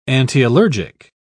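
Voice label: "antiallergic", English, British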